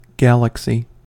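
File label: "galaxy", US English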